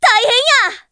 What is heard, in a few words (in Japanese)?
警告メッセージ; Love Hina: Desktop Accessories